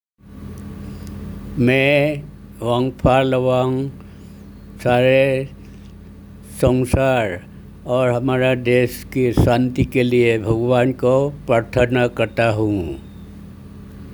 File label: Hindi